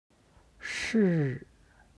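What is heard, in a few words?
"shi", Chinese; 是; ～だ; HSK Level One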